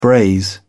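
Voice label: "braise", British English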